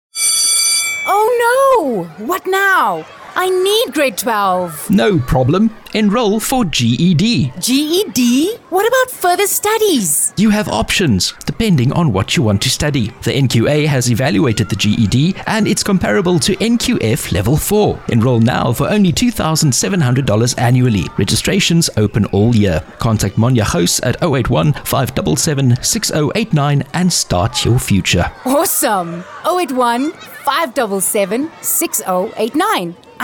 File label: advert; Homeschooling Association of Namibia GED